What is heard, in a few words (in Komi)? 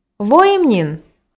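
воим нин, Komi, female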